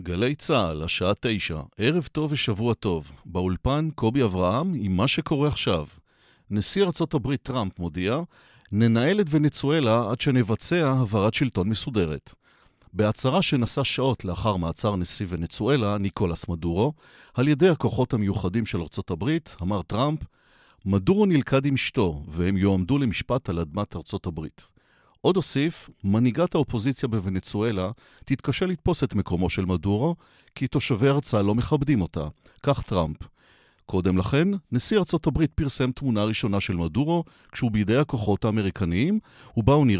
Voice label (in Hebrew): קריינות חדשות